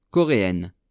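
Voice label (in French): "coreenne", French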